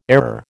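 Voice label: "error", American English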